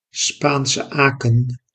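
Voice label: "Spaanse aken", Dutch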